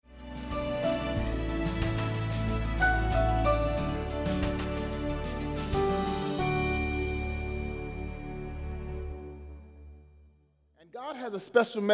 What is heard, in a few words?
English Sermon